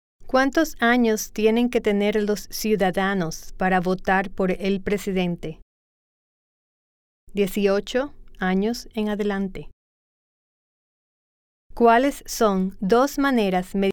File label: Spanish